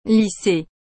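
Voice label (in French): "lycee", French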